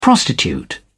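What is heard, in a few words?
"prostitute", British English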